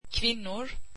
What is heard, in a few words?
swedish women